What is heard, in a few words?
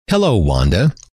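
hello, male; trainer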